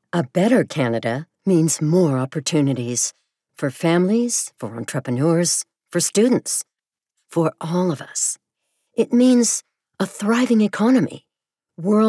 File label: Corporate Promo; Canada